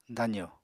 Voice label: "dazo", Apatani